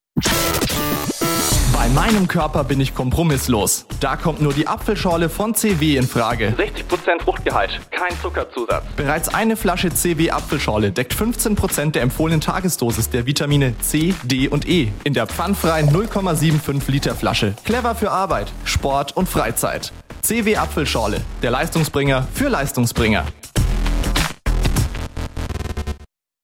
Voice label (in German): rundfunkspot